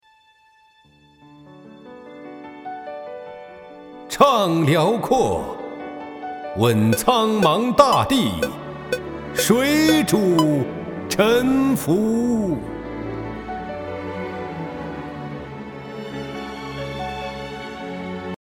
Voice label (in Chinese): 男, 演绎 朗诵 高远; 怅寥廓